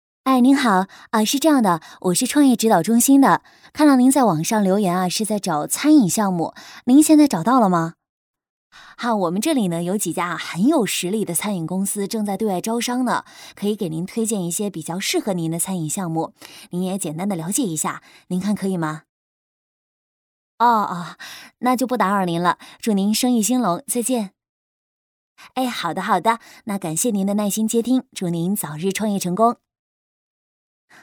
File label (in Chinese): 女 话术 群呼电话; 创业中心